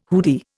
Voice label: "hoodie", Indian

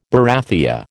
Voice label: "barathea", American

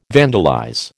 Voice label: "vandalize", American

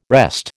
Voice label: "wrest", American

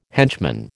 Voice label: "henchman", American

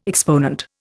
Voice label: "exponent", Indian

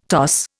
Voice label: "toss", Indian

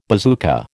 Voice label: "bazooka", American

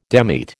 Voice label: "demit", American

